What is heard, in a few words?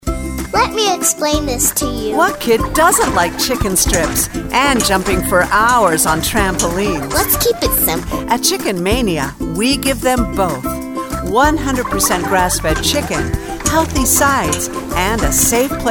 Fast Food Fun Family Quicker high tone, Commercial Voiceover